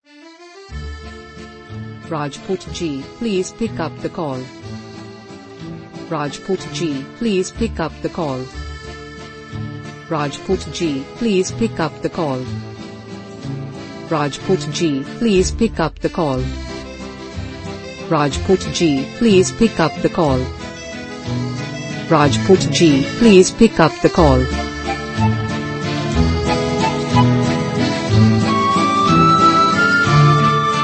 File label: Name Ringtone Hindi